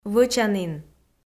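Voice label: "вӧчанін", Komi, female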